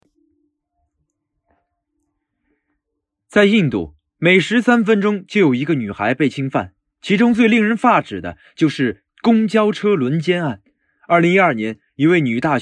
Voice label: 电影解说开头

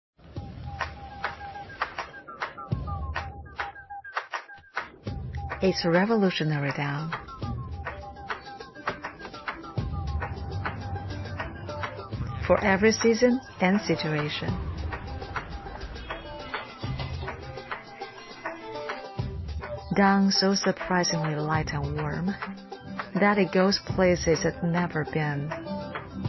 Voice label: 英语清薄御寒服饰广告

